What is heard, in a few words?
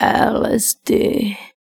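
vocal female, Dance Vocals, spoken words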